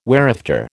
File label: "whereafter", American